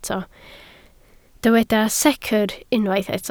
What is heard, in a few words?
"secr", Welsh